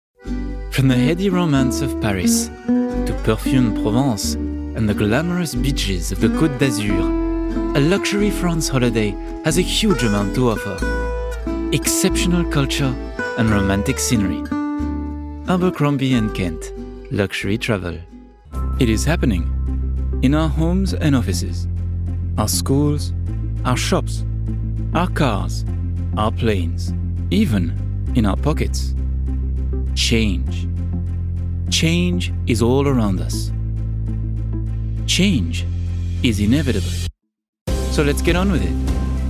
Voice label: French accented English

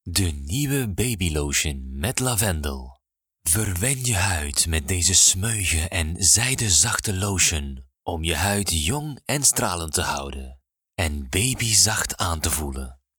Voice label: DRY Flemish soft sell; 20s - 30s; Male

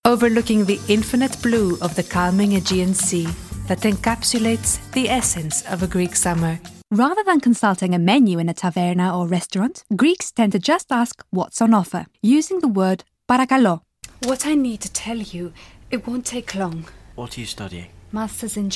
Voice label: Accented English

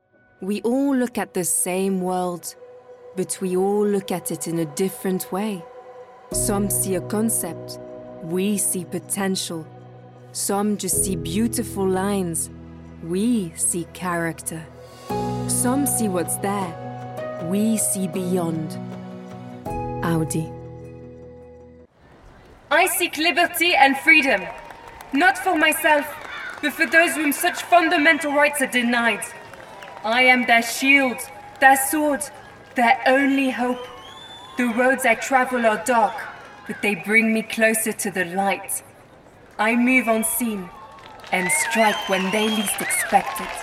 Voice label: English French Accent

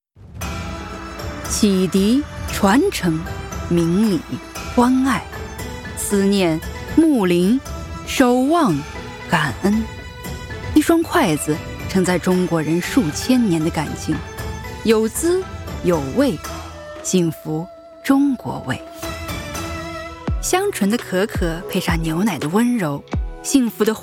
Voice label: Chinese Mandarin

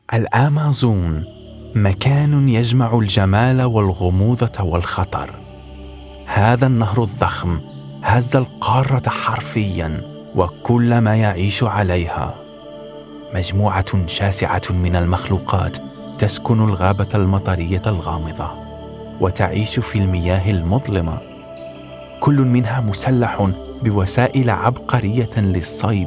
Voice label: Arabic

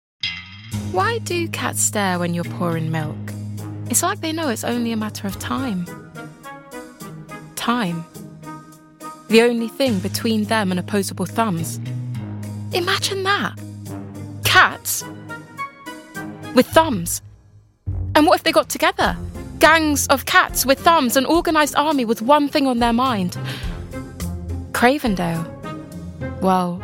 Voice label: Commercials, female

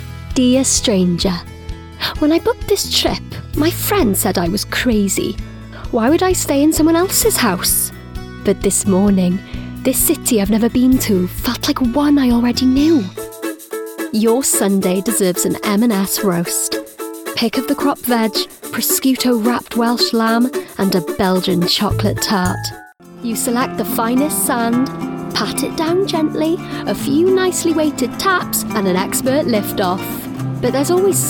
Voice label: English; Welsh, Male, 20s-30s

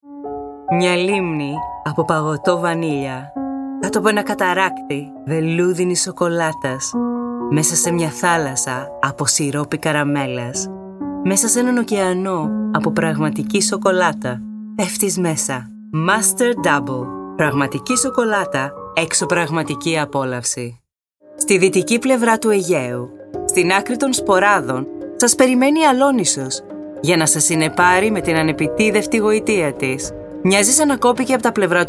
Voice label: Greek; Greek, Male, Home Studio, 20s-40s

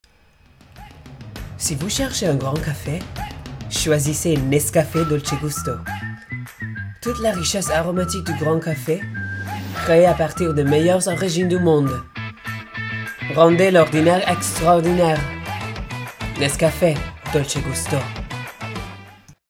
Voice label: French

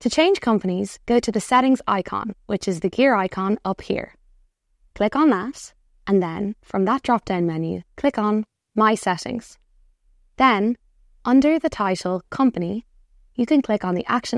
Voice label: Corporate Read; 20s-30s; Male; Irish

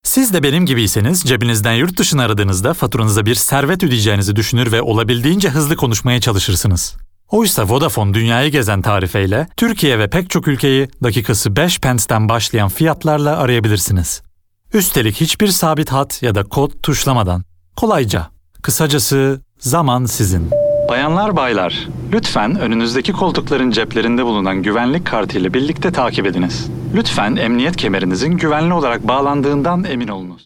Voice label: Turkish

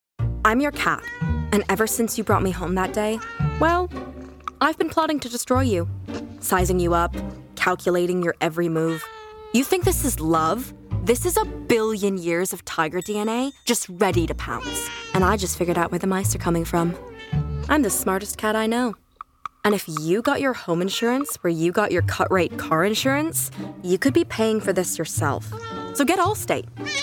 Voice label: Commercial Reel

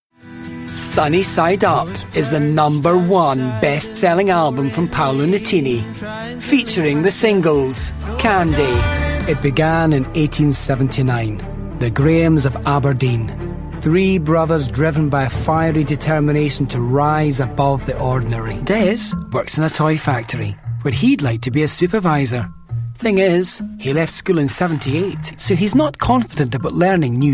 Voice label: Scottish; regional accents

